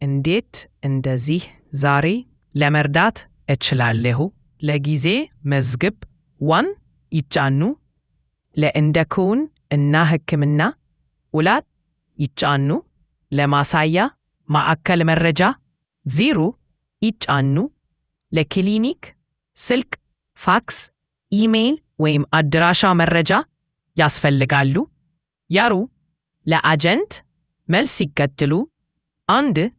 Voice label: amharic